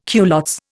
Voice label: "culottes", Indian